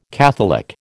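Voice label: "catholic", American English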